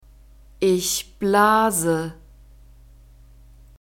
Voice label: "ich blase", German; verbs present tense irregular

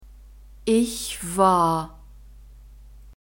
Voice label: "ich war", German; verbs past tense irregular

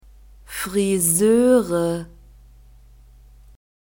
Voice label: "friseure", German

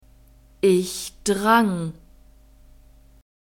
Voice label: "ich drang", German; verbs past tense irregular

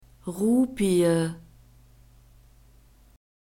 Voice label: "Rupie", German; masculine

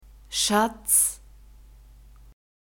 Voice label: "Schatz", German; love

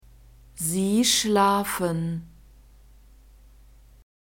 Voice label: "sie schlafen", German; verbs present tense irregular

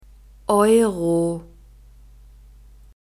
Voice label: "euro", German

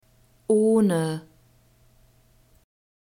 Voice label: "ohne", German; alphabet

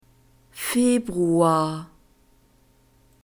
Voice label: "februar", German; masculine

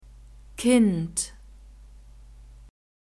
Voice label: "kind", german; alphabet

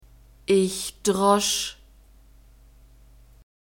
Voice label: "ich drosch", German; verbs past tense irregular

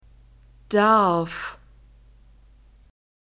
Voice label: "darf", German

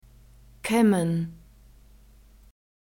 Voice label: "kaemmen", German; alphabet

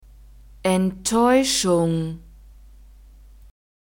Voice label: "enttaeuschung", German; diphthongs

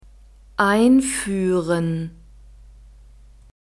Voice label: "einfuehren", German

